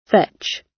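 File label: "fetch", British English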